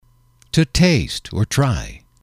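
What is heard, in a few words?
"to taste try", English, male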